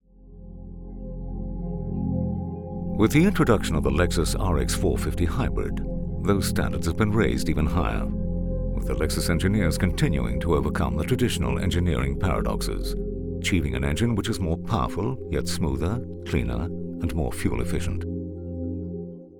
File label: Auto Smooth; LEXUS